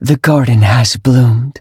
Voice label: battle en; cookie run